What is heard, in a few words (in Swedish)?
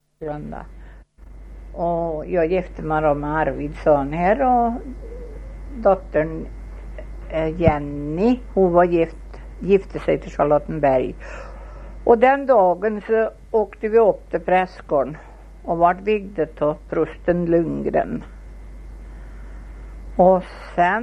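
Köla Värmland, dialekter, svenska sagor; Folkminnen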